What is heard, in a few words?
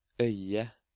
"Øye", Norwegian